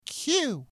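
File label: "Q", English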